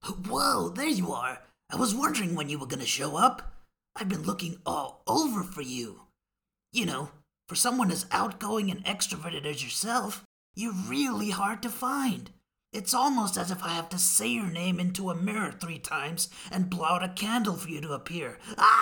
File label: cartoon english; ENGLISH Neutral